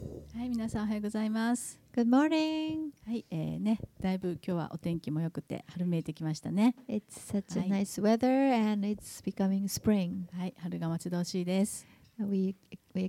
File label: announcement; sunday message 2018